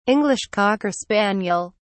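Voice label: "english cocker spaniel", British English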